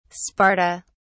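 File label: "sparta", British English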